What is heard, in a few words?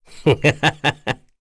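Vox Happy, Korean; Kain; kingsraid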